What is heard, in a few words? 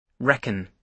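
"reckon", British English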